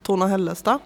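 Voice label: "Torna Hällestad", Swedish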